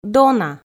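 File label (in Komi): "дона", Komi, female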